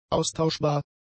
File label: "austauschbar", German; adjectives